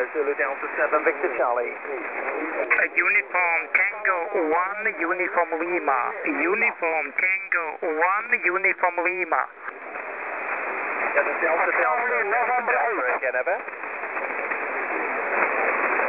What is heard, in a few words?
qso; 14185khz